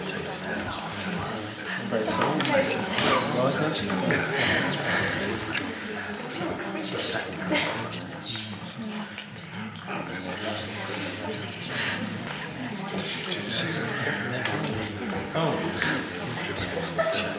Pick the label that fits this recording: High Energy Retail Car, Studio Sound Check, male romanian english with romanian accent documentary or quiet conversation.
quiet conversation